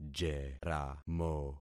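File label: "jeramo", concatenated syllables; Affixing experiment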